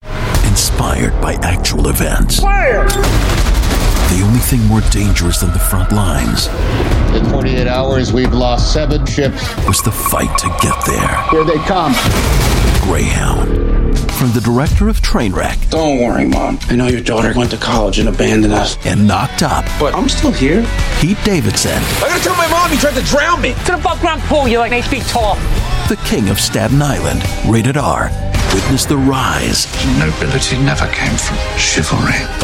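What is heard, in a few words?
US English Showreel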